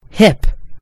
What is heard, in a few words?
"hip", en GB; gcompris